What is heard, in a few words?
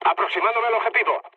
near waypoint, mood med, speech; War Thunder